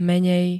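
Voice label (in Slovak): "menej", Slovak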